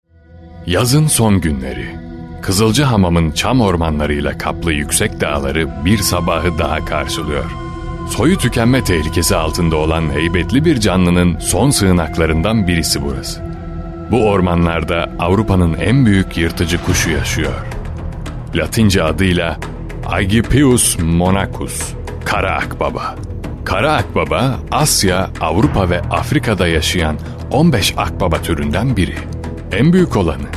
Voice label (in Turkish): belgesel seslendirme